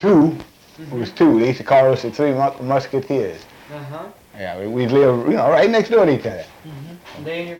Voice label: Conversation with 41 year old black male Detroit Michigan, american english dialect